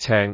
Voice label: "ceng6", cantone; microsoft Wan Lung